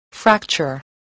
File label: "fracture", English voice, spelling